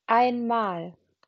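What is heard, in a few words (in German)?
"einmal", German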